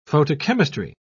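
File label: "photochemistry", British English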